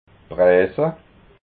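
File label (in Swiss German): "brassa", Alsatian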